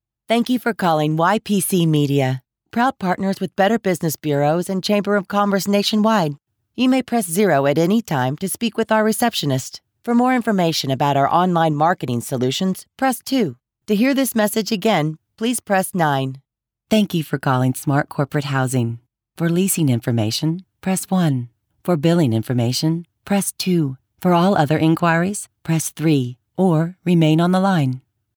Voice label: IVR